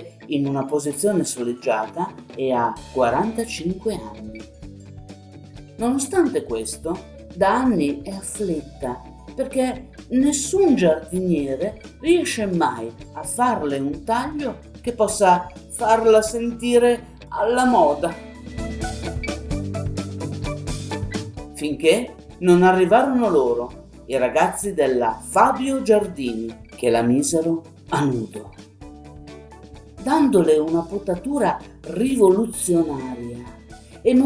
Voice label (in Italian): voce magnolia con musica